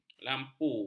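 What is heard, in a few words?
"lampu", Malay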